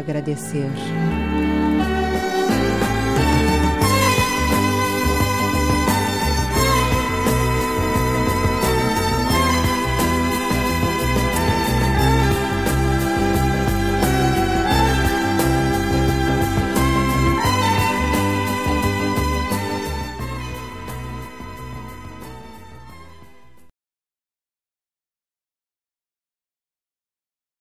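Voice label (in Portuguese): Religiosa Obrigado Senhor, Feminino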